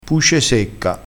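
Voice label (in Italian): "puce secca", vocabolario dialettale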